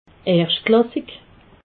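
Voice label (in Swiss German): "erschtklassik", Alsatian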